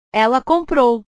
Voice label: "ela comprou", portugues; comprar; portugues/verbos

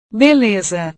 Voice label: "beleza", portugues

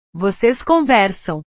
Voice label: "voces conversam", portugues; conversar; portugues/verbos

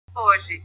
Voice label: "hoje", portugues

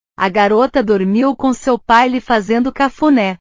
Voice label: "cafune", portugues

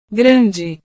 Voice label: "grande", portugues

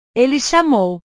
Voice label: "ele chamou", portugues; chamar; portugues/verbos

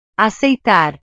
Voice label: "aceitar", Portuguese; verbos

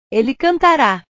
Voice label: "ele cantara", portugues; portugues/verbos

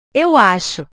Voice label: "eu acho", portugues; verbos

